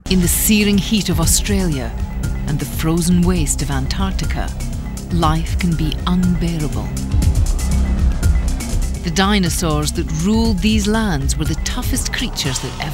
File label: voiceover narration